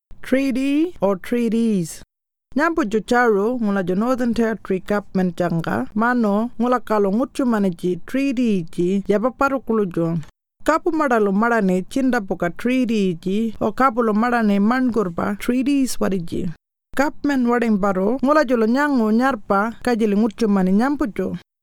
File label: Warlpiri